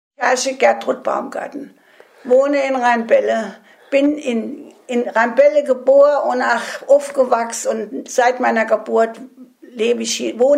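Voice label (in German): stellt sich vor, Hunsrück; Rheinböllen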